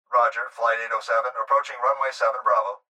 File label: Pilot Voice Runway